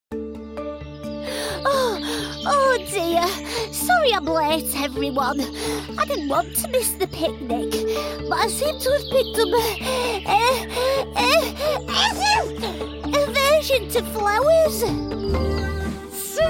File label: ANIMATION CHARACTER